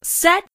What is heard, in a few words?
"set", female voice over; kenney voiceoverpack